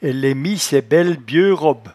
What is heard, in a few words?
"elleemissaibelle", patois jura